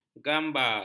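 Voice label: "gambar", Malay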